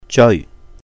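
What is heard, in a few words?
"jèui", Cantonese; pursue, catch up with; 追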